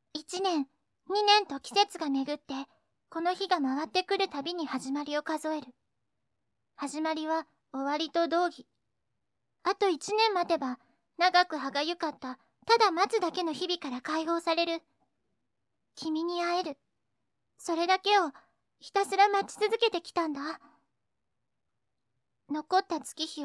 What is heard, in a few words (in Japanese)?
高め, モノローグ風; 同じ文章で３パターン; KA×4Cafe」さまのサイトの、「100のセリフ」より拝借した台詞で、いろいろ。